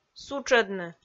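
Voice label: "сутшӧдны", Komi-Zyrian, female; audio dict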